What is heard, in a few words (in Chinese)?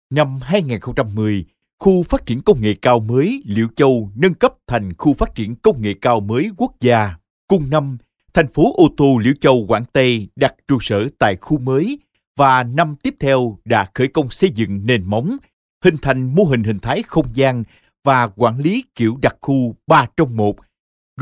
越南语男, 外语, 小语种